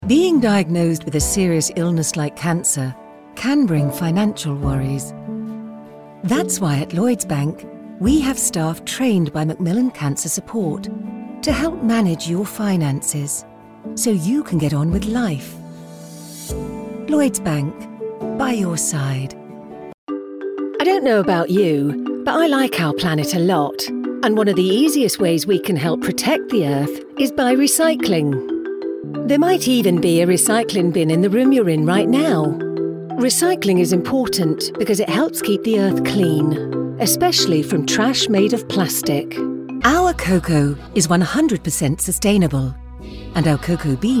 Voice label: Narrative, I can be the warm, friendly, believable guy next door; Prudential Insurance